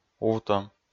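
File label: "увтӧм", Komi, male; kpv audio dict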